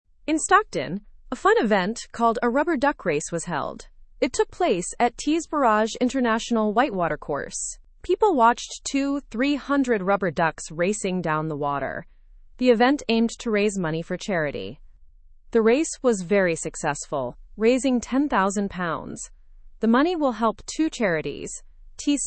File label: learn english through news lower intermediate; stockton duck race helps raise charity funds